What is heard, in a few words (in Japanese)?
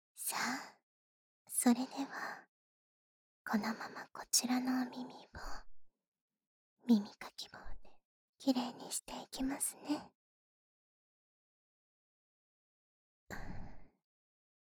誘惑 耳かき ～ 吐息 と エッチ な 囁き ～; 右耳